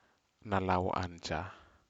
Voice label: chicken feather, Aneityum